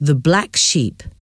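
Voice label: "the black sheep", English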